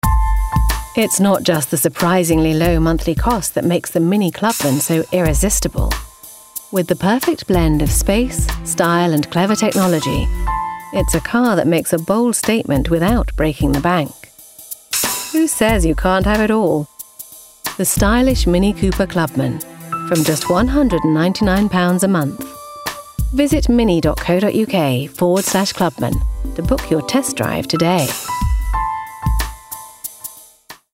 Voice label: Commercial; MINI Clubman